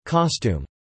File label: "costume", English